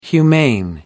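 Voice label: "humane", English, uk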